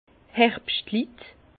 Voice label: "herbschtlit", Bas Rhin; vin; vendangeurs

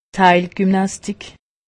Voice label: "heilgymnastik", alsace; medecine

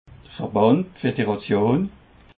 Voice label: "verband", Alsace

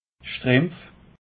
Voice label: "schtrimpf", alsace

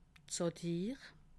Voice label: "satire", alsace

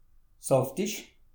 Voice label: "saftich", alsace

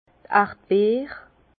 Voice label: "erdbeere", Bas Rhin; fraise; vin

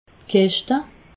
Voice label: "keschte", alsace

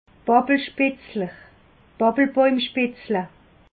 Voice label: "pappelspitzle", alsace

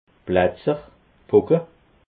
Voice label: "bletzer", alsace

